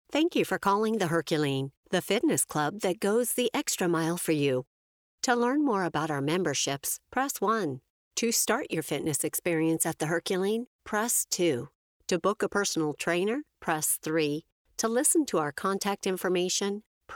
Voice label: IVR